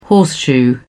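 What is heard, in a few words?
"horseshoe", British English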